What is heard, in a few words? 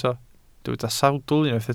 "sawdl", Welsh